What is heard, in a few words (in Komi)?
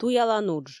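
туялан удж, Komi, female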